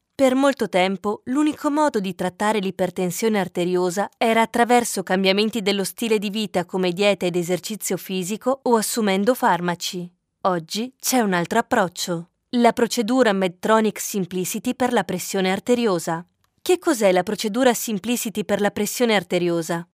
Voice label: locutora italiana, Italian voiceover